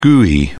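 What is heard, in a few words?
"gui", British English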